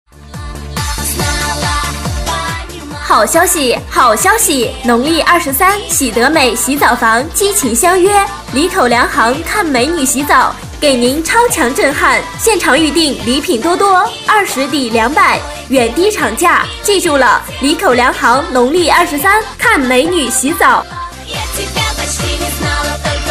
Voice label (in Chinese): 促销 洗澡房